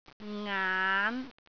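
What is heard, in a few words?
"ngaan5", Cantonese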